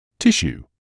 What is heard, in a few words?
"tissue", English